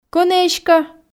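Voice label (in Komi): кӧні эськӧ, Komi, female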